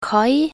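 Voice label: "kai", Norwegian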